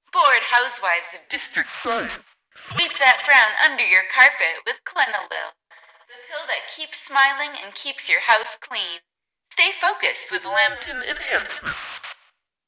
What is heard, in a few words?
advert; Pitch Black - A Detective's Demise